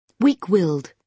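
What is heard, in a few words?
"weak willed", British English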